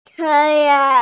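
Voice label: "tài yáng", Mandarin Chinese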